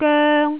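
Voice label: "goeng", Cantonese, tone 1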